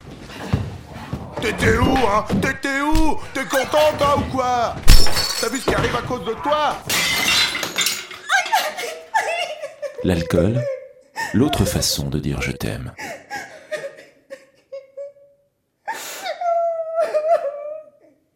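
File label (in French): spot, spots radio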